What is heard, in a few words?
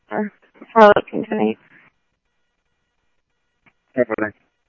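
police department, scanner audio trunk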